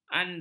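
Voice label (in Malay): "andur", Malay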